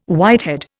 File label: "whitehead", Indian